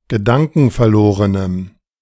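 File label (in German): "gedankenverlorenem", German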